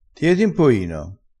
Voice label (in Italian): "ti eti", vocabolario dialettale crespinese